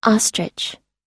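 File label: "ostrich", American English; ostrich /ɑ́:stritʃ; 【鳥】 ダチョウ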